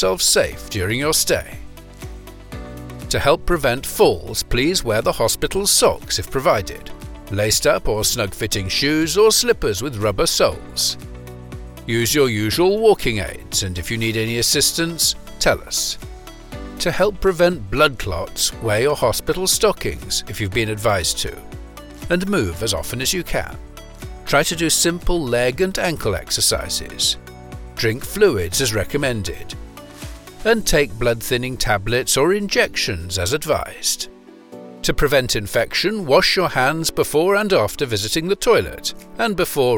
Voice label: Medical Narration Patient Safety